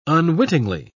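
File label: "unwittingly", English, British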